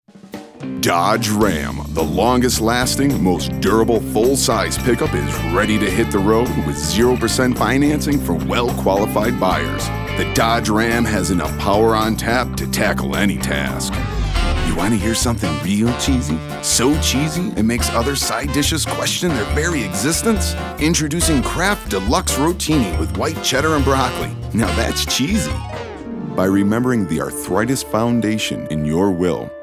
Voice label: Commercial